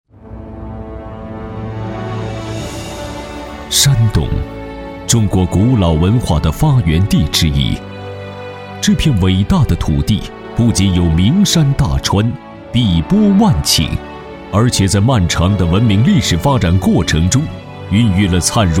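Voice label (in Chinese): 男 专题 汇报片 庄重大气, 普通话; 法制山东砥砺前行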